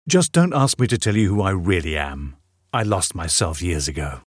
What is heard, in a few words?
Voice Over Man; Peter Dickson